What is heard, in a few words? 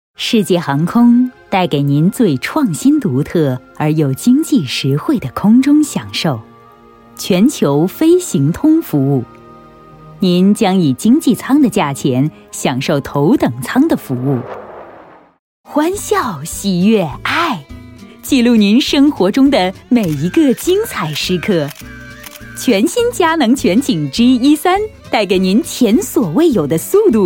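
Mandarin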